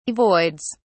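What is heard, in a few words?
"avoids", British English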